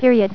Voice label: "Period", Voice Female; TalkingKeys

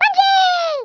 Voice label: "bungee", American; Speech; International

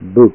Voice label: "bu", Learn Kana

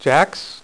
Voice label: "JACKS", Dealer's Speech

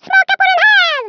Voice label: dragonpunch, Swedish, Speech; 11khz; International

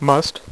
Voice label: "must", dealer's speech; PowerPOKER Demo

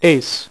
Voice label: "ACE", Dealer's Speech; PowerPOKER Demo

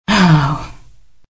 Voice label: Female Bummed; Survivor The Interactive Game; Player

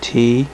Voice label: "t" male; alphabet; Web Clip Empire 150,000

